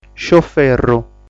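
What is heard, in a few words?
"Scioferru", Sardinian word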